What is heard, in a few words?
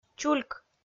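"чульк", Komi, female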